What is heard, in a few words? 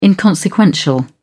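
"inconsequential", British English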